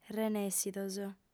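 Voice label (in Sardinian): "renèssidos", female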